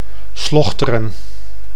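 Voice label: "Slochteren", Dutch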